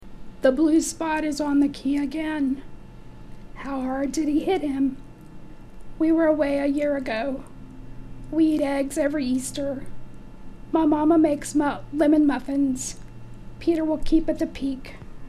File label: Pre Surgery Voice